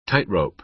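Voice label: "tightrope", American English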